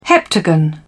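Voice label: "heptagon", British English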